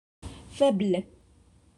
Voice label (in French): "faible", French